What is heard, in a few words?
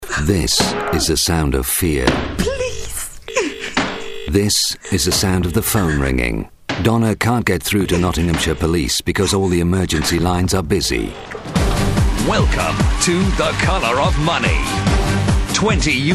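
UK male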